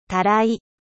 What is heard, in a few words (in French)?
"bassine", "たらい"